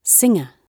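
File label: "singer", British English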